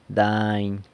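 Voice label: "dany", San Lucas Quiaviní Zapotec; mountain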